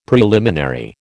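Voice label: "preliminary", American English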